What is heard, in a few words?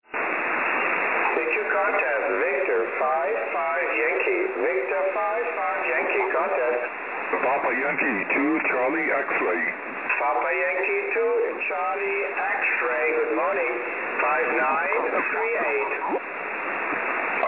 28755.5kHz, ssb; wpx contest 2022